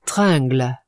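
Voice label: "tringle", French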